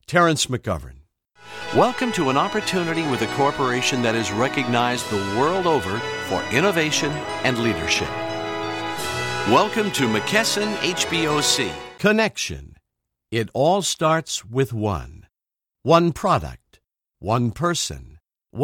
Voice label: narration